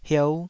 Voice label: "hieu5", taiwanese

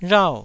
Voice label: "rhau4", taiwanese

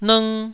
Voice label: "lng1", Taiwanese syllable

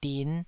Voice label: "din5", Taiwanese

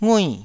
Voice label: "ngui4", Taiwanese syllable

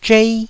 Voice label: "zhei1", taiwanese

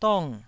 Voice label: "dong4", Taiwanese

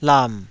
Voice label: "lam3", Taiwanese syllable

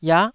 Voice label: "iah9", Taiwanese syllable

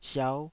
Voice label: "siau5", Taiwanese syllable

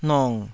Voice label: "nong3", Taiwanese

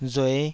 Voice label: "rue5", taiwanese syllable

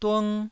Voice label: "dung1", Taiwanese

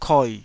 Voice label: "koi3", taiwanese syllable

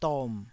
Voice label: "dom3", taiwanese syllables

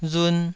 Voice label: "run2", taiwanese

